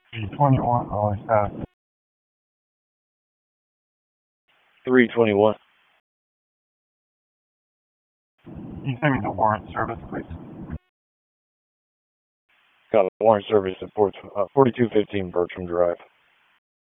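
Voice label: Police Northeast District Dispatch, Raleigh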